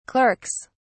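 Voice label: "clerks", British English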